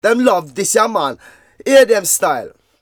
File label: Vocals; Jungle Frenzy 1